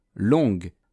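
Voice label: "longue", French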